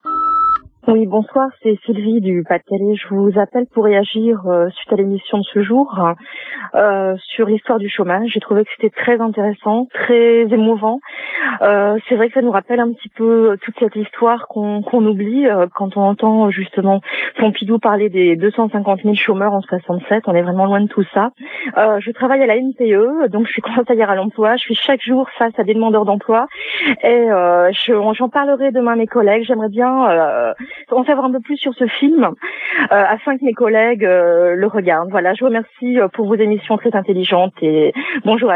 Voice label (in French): Chomage repondeur